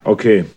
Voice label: "okay", expletives